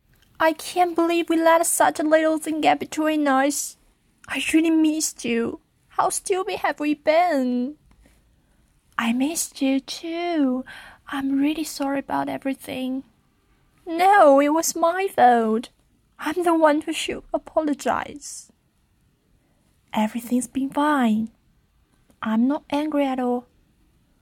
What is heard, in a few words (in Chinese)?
英文对话 姐妹和好